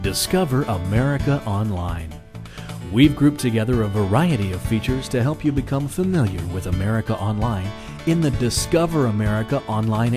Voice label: Corporate Identity Narrations